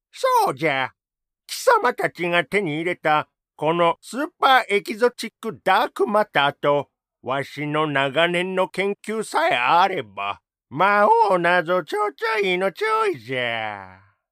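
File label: old man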